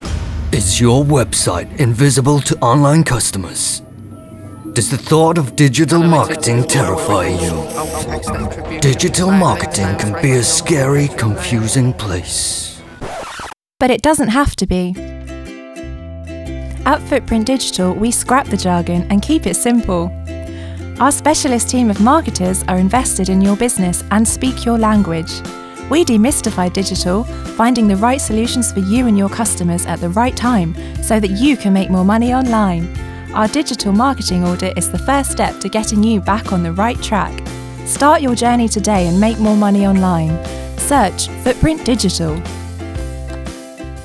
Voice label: Advert; Hungry Hungry Hippos; Digital Nightmare